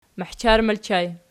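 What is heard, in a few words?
"mahchar" ergative plural, Archi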